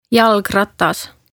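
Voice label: "Jalgratas", Estonian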